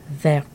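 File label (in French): "vertes" French adjective, feminine plural; Colors and Adjectives; verts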